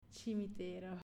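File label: "Cimitero", Italian, female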